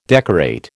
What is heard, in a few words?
"decorate", American